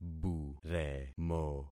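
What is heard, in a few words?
"buremo", concatenated syllables; Affixing experiment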